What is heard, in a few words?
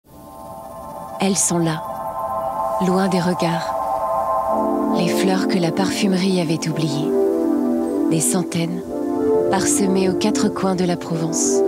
french